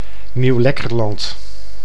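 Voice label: "Nieuw-Lekkerland", Dutch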